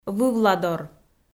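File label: "вывладор", Komi, female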